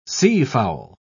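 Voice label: "seafowl", English, British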